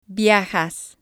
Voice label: "viajas", Spanish

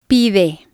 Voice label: "pide", spanish

